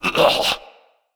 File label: Death Gurgle, Grineer Male; Enemies; Kubrow Feral